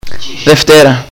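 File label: "monday", Greek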